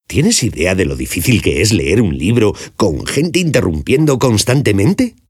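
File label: Spanish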